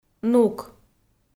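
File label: "нук", Komi, female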